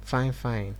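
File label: "fine fine", Nigerian Pidgin, male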